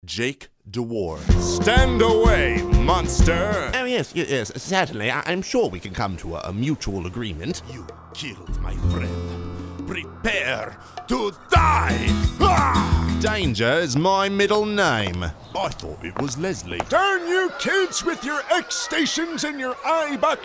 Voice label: animation